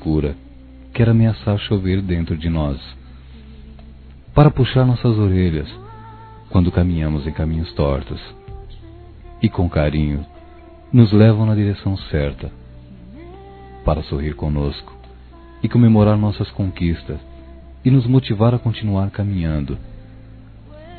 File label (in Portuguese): Aniversário Amigo Anjo Masculino; Telemensagens 2017; Aniversários; Aniversário de Amigos